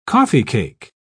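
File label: "coffeecake", British English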